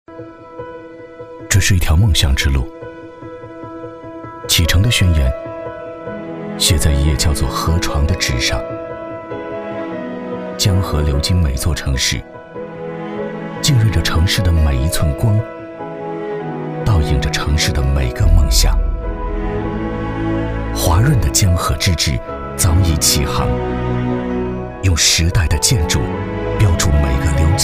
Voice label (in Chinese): 男 素人; 河流的告白